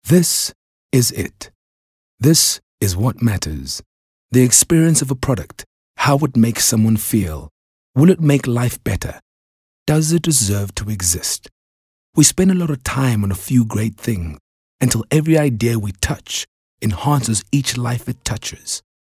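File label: Softsell South African Neutral, English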